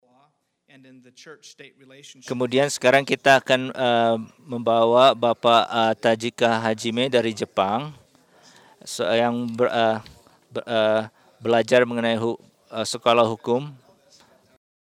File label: Indonesian; iclrs symposium 2009